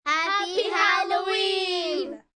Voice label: Group Halloween, Occasion, Group, 2-4 children